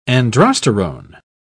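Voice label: "androsterone", British English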